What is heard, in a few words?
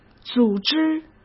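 "组织", Mandarin Chinese; hsk; chinese-flashcards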